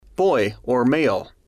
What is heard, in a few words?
boy Male